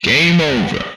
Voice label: "Game Over", Speech; retro arcade

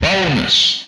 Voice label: "Bonus", Speech; retro arcade

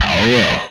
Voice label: "Power Up", retro arcade speech

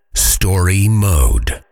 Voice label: "story mode", voiceover fighter; kenney

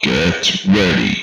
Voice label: "Get Ready", Speech; retro arcade

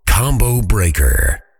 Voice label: "combo breaker", voiceover fighter; kenney

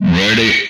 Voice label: "Ready", Speech; retro arcade

